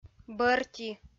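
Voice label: "бӧрти", Komi, female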